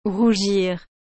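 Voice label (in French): "rougir", French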